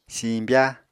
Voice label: "siñbya", Apatani